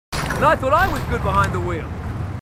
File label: marines, aussie; h2dialogue